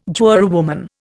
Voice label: "jurywoman", Indian